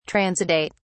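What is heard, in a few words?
"transudate", British English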